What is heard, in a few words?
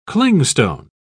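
"clingstone", British English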